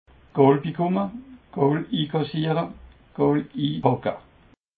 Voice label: "goolbikumme", Alsace

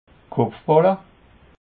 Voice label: "kopfballe", alsace

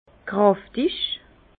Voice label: "kraftig", Bas Rhin; intense; vin

